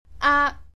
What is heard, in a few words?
"aak", Cantonese